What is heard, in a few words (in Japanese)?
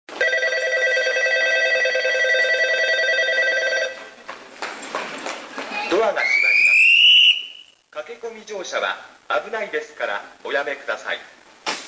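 駅放送, 旧放送 2番線 発車; 音質が良い; toyo-katsutadai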